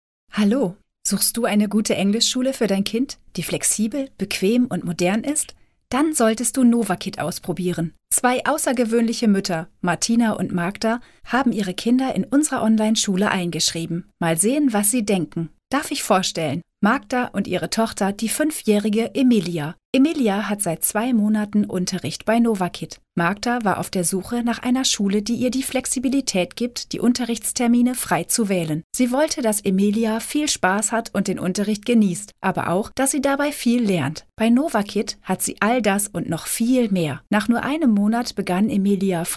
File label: German female